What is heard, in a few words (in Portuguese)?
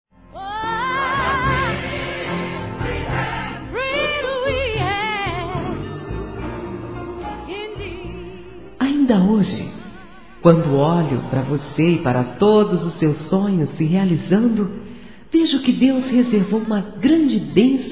Evangélico Aniversário Filho Feminino; Aniversários